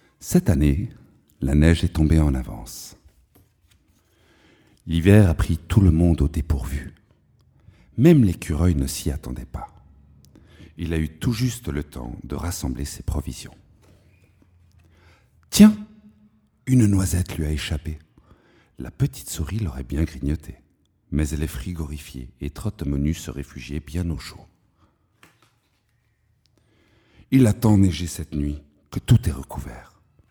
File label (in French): Conte pour enfants